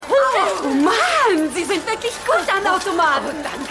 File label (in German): Frau; Keine Gnade Fuer Dad